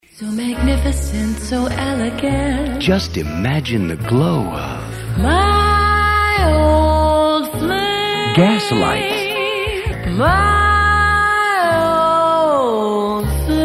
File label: Commercial Voice Demo; Track 11 National Fuel Gas Commercial